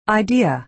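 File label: "idea", American English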